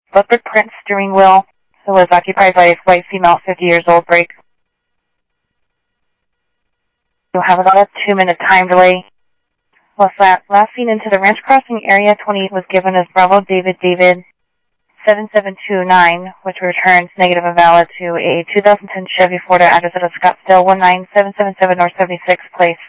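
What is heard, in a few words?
police department, scanner audio; SCTSDLE PD - PD2; ScottsdalePolice; ThompsonPeakHigh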